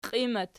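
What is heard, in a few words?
"qiimat" nominative, Archi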